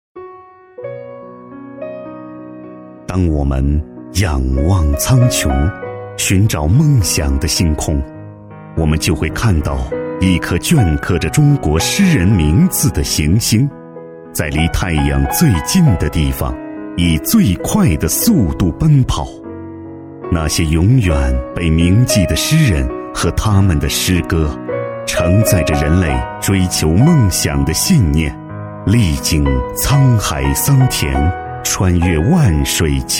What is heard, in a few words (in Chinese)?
男 讲述 人声, 国语男声配音, 浑厚; 浑厚专题